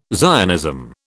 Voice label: "Zionism", British